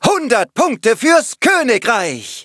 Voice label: victory, de